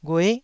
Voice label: "ghue9", Taiwanese syllable